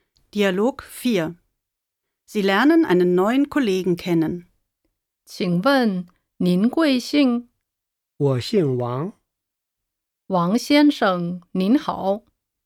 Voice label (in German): Dialog